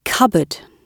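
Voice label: "cupboard" British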